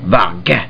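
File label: "five", English; Klingon BG App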